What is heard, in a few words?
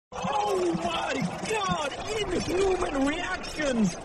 oh my god inhuman reactions